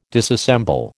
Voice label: "disassemble", American

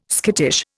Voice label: "skittish", Indian

